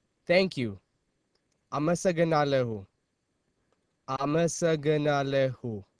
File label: Amharic Thanks